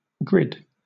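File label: "grid", British English; pronunciations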